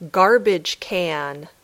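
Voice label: "garbage can", English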